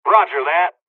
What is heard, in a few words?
dlg, hungarian; War Thunder